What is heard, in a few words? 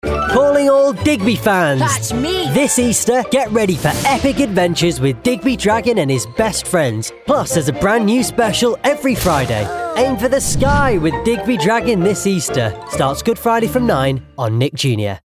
PROMO; Digby Dragon